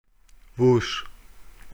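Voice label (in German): "wusch", German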